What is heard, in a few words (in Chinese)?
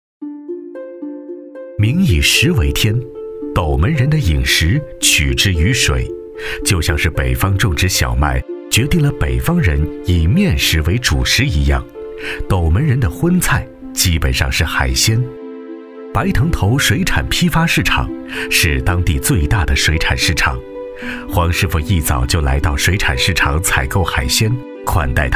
男 专题 景区（舌尖感）; 自在斗门景区